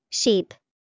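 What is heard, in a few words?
"Sheep", English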